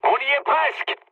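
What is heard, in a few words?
near waypoint, mood high, French; War Thunder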